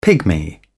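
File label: "pygmy", British English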